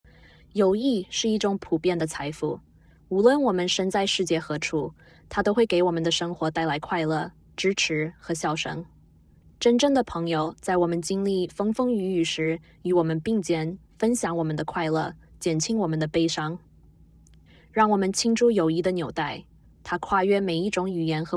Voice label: mandarin